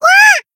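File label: damaged, Japanese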